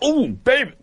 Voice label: "ooh baby", voice